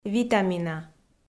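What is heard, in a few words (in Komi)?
"витамина", Komi, female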